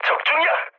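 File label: Korean player killed enemy mood med, speech; War Thunder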